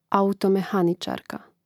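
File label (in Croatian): "automehanicarka", Hrvatski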